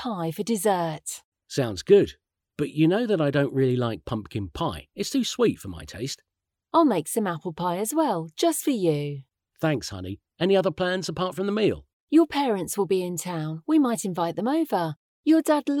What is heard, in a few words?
Dialogue LETS CELEBRATE THANKSGIVING